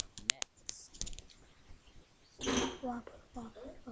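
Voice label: "rub", spoken word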